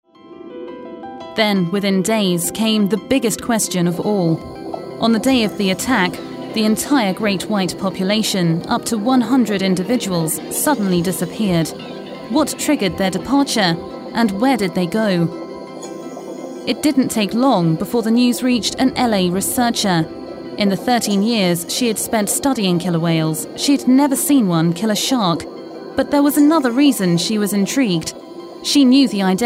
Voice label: Documentary

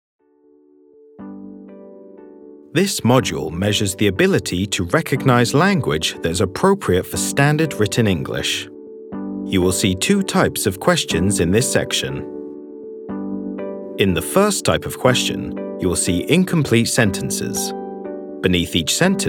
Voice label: ELearning

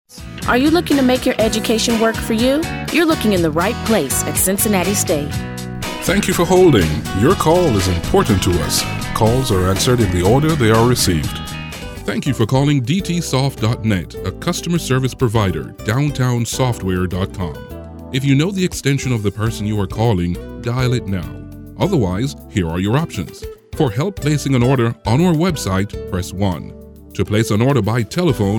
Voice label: IVR phone prompts

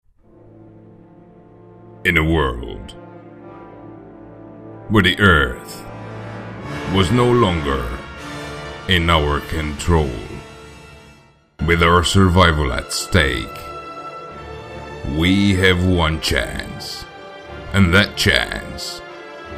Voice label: Movie Trailer

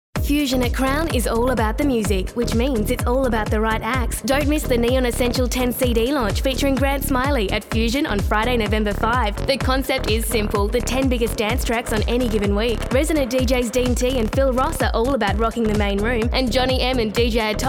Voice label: RETAIL young cool

